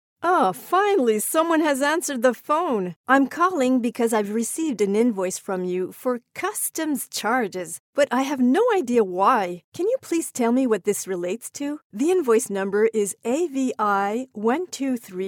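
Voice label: French Canadian, Accented English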